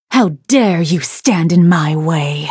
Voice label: battle en